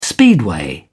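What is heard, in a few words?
"speedway", English, British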